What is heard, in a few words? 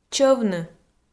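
"чӧвны", Komi-Zyrian, female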